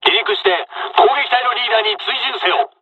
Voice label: second wave takeoff, dialogs japanese; War Thunder

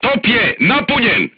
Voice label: voice message charged, serbian crew tanks

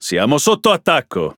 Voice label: It Speech Pilots Torpedo Under Attack; World Of Warships

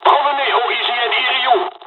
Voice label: evac, dialogs french; midway jpn; War Thunder

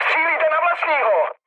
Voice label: player friendly fire, speech cze, mood low; War Thunder

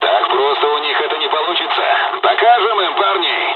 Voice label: dialog, dialogs russian; War Thunder

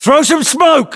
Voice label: voiceovers, US; english

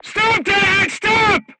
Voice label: voice message short stop, crew tanks portuguese

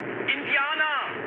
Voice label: enemy detected, mood high, speech ge; War Thunder

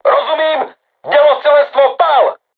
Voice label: voice message art shoot, crew tanks czech

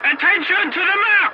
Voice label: voice message attention to point, english

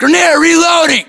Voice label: inform reloading, US

